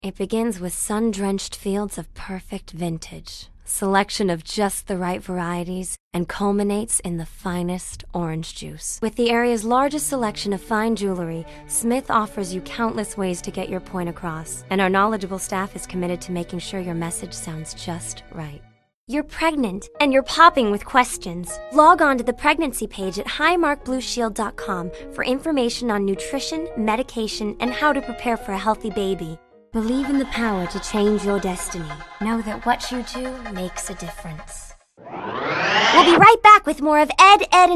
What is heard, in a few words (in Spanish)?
locutora ingles americano